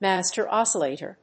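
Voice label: "Master Oscillator", English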